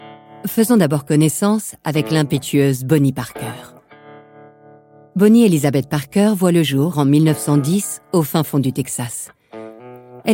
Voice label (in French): narration; bonnie and clyde